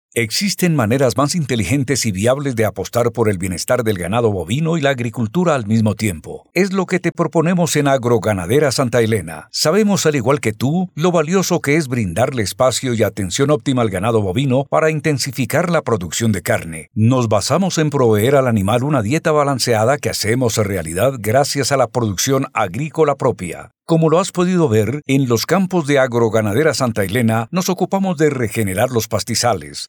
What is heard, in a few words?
Spanish (LA), Male